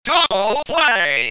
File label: "Double Play", Baseball; World Series